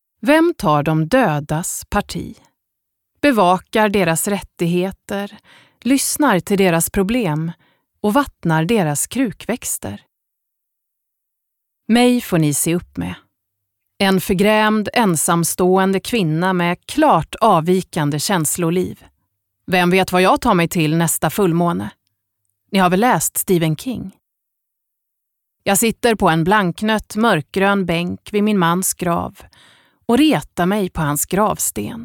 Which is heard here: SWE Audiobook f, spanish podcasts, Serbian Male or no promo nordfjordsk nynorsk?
SWE Audiobook f